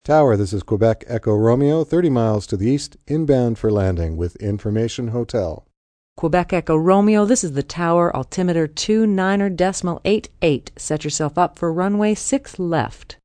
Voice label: Pilot Tower Info, Pilot, (male) & Air Traffic controller, (female) in dialogue; Radio Dispatch; Airport; VoiceBox